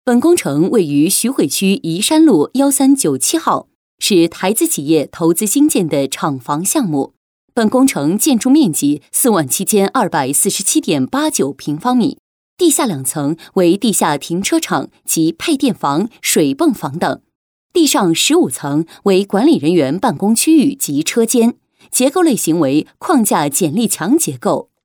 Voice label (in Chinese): 专题工程汇报正式大气